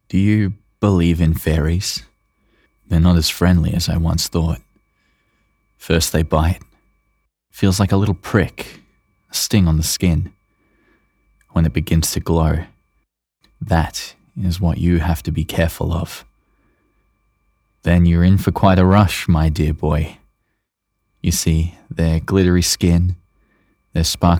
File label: Young Middle Age Dark Monologue